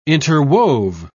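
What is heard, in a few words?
"interwove", British English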